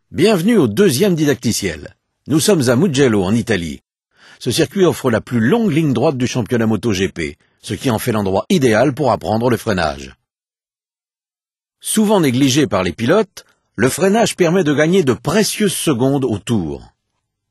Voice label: braking french; MotoGP 3

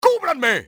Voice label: cover me, spanish soldier; enemy; XBOX

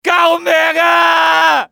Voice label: "help me", male; XBOX; civ

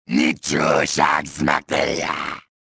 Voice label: victory, trandoshan; XBOX

